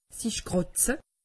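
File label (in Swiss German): "kratze", Alsatian; medecine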